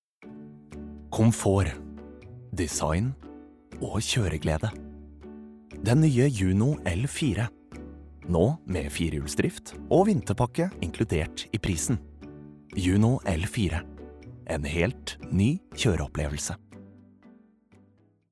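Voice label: Norwegian reklame soft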